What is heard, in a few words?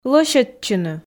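"лӧсьӧдчыны", Komi, female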